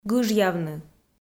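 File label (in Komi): "гыжъявны", Komi, female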